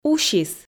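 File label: "усис", Komi, female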